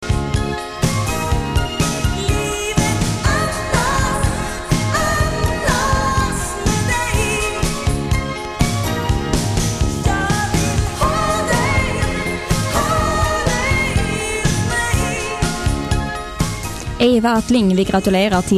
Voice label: Newsflash